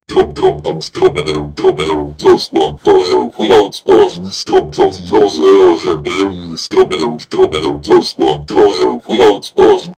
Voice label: voiceover, announcement; netflix